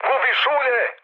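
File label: Serbian damaged oil mood med, speech; War Thunder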